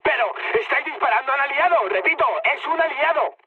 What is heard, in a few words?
player friendly fire, mood high, speech